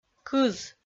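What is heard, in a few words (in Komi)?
"кыз", Komi, female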